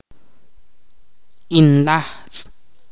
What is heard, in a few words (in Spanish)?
"estrecho", Ch'orti'